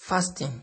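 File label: "fasting", Nigerian Pidgin, male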